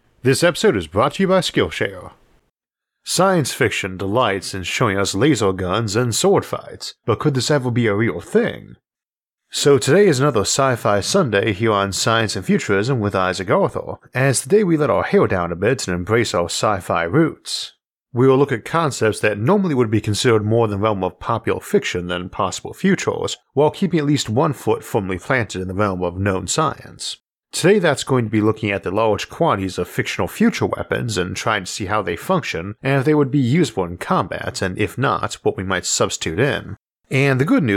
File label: narration only; isaac arthur; laser pistols lightsabers